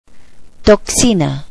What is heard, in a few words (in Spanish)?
"toxin", Spanish